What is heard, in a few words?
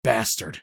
battle cry; eft; usec